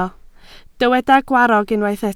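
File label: "gwarrog" Welsh, spoken word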